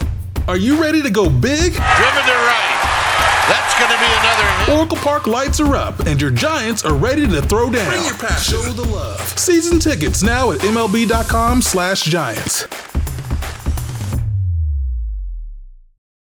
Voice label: Radio Commercials, Friendly, conversational, approachable Male Voice; San Francisco Giants Spot